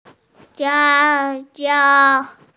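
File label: "xiang1jiao1", Mandarin Chinese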